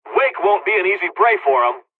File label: dialogs serbian; War Thunder